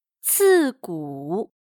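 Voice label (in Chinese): "刺骨" Mandarin Chinese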